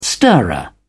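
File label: "stirrer", English, British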